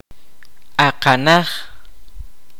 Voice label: "foot", Kaqchikel